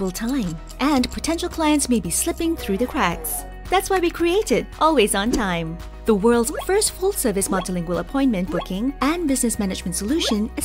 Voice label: Online Video VO, Social Media Ad - Upbeat, Bubbly, Animated, Bright, Energetic, female; Narration